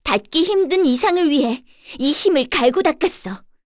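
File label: vox korean; voices; heroes; Cecilia; kingsraid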